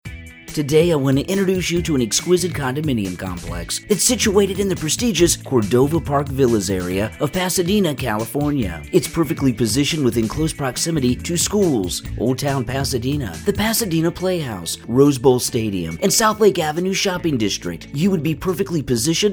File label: radio podcast ad; 330 Cordova St; iheart